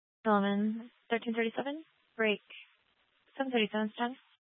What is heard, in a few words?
Law Enforcement Channel; Garfield County; Channel 2; Glenwood Springs PD